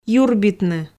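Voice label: "юрбитны", Komi, female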